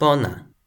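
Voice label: "pona", Toki Pona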